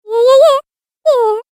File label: Cartoon Game Voice Yeahs; Cartoon Voice Sound Effects